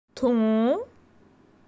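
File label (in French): "Ton", French; grammaire; adjectifs possessifs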